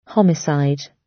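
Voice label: "homicide", British; Crime and punishment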